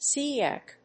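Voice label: "psk", English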